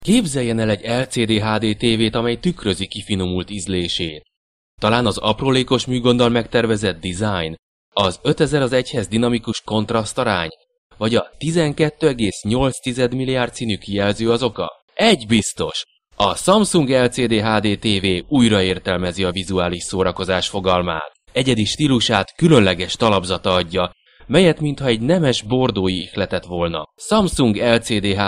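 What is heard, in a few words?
Hungarian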